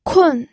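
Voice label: "kun4", Mandarin Chinese; pinyin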